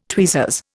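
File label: "tweezers", Indian English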